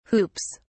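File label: "hoops", British English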